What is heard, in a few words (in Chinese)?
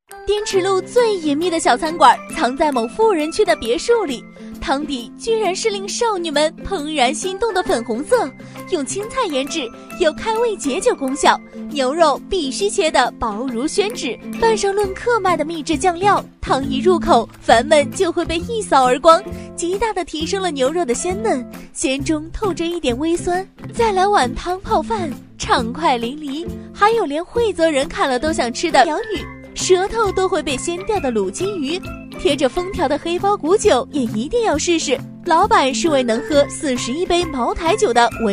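女促销广告欢快有活力; 美食节目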